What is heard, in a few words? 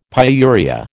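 "pyuria", American English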